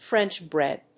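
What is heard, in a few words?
"French bread", English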